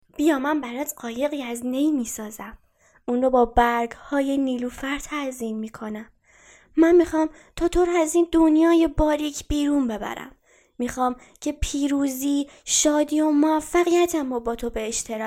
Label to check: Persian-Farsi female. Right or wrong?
Right